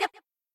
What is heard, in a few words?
buncha books, girls; cheerReaders; LetsGoRead